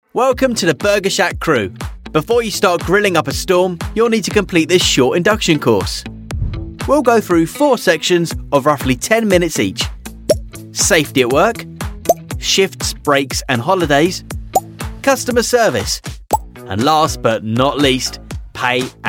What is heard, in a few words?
e-learning